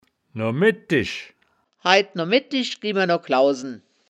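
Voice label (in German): "noa medtisch", Wittlicher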